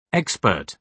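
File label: "expert", British English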